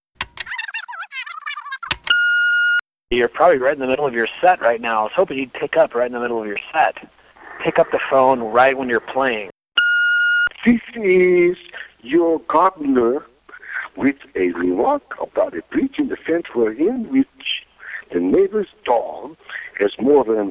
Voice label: Answering Machine